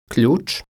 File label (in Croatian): "Kljuc", croatian